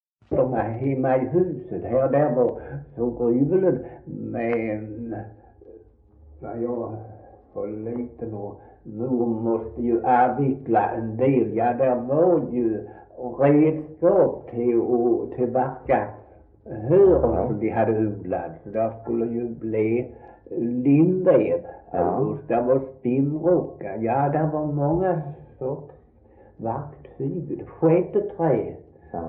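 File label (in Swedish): Svensköp Skåne, Svenska sagor dialekter; Folkminnen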